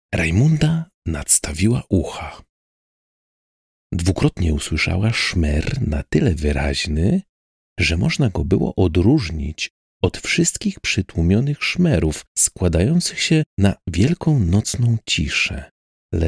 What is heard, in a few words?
audiobook